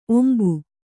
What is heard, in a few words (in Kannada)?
"ಒಂಬು", Kannada